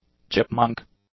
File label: "chipmunk", English; nouns